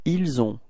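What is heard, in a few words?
"avoir ils", French; frans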